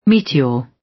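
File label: "meteor", British; Space, the planets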